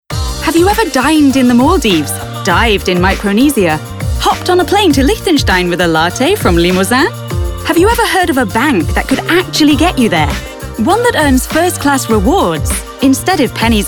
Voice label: Commercial British English